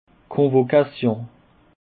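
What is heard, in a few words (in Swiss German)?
"convocation", Bas-Rhin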